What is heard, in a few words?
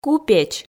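"купеч", Komi, female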